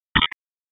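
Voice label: Police Spec Ops Tac, radio; wake; p25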